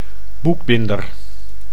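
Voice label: "boekbinder", Dutch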